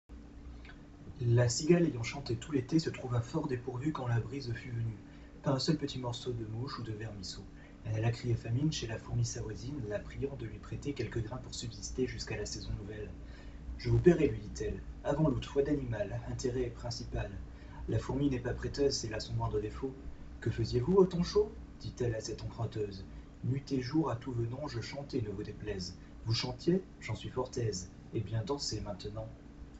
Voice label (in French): test micro